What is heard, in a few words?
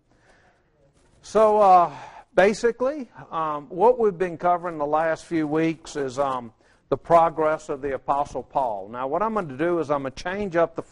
English